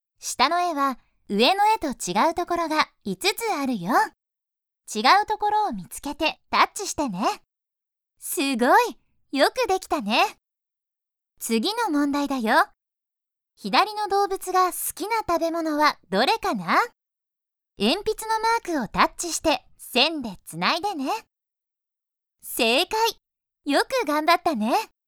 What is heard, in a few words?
female; Children's materials, gentle